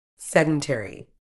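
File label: "sedentary", British English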